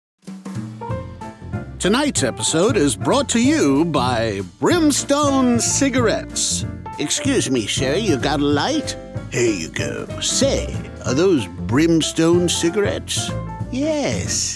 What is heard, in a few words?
Audiobook